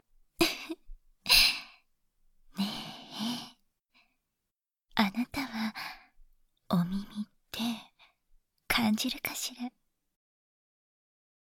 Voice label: 耳舐め触手で射精管理（囁き重視編集効果音あり）, ASMR; 効果音大差分

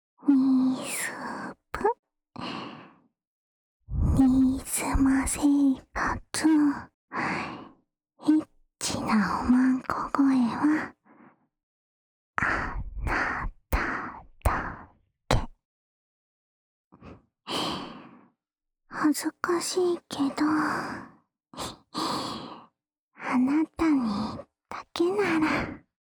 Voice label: タイトルコール

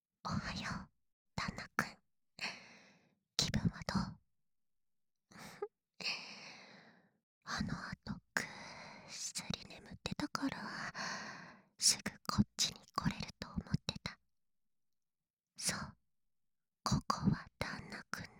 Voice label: 手でゆっくり囁き射精誘導（囁き強化効果音あり）

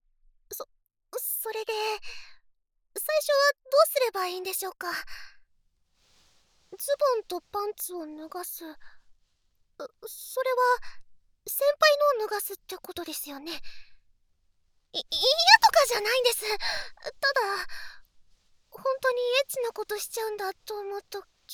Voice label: 囁き、耳舐め; 手コキ

